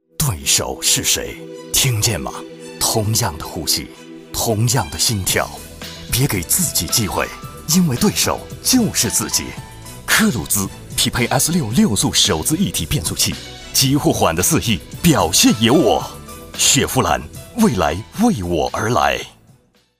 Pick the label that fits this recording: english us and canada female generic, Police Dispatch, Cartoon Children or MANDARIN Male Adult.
MANDARIN Male Adult